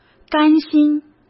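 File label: "甘心", Mandarin Chinese; chinese flashcards; hsk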